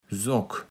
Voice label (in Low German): "Sock", platt